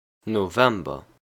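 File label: "November", Luxembourgish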